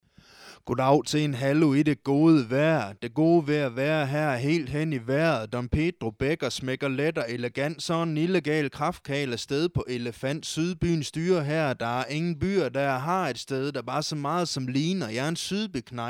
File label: vokal rap 98 bpm